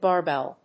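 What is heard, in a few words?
"barbell", English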